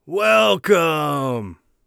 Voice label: welcome, warrior voice; Warrior Voice Pack